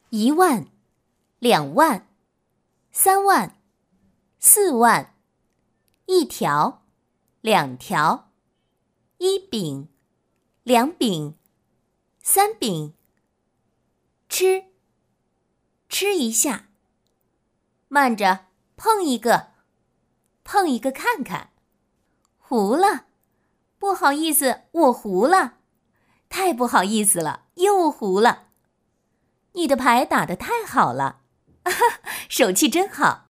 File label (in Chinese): 女 麻将女